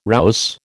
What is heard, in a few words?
"rouse", American